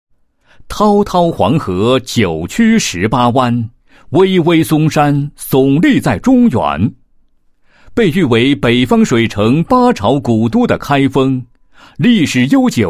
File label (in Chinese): 男 企业专题 宣传片; 开封发电分公司工会工作